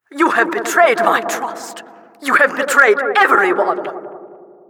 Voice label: announcer failure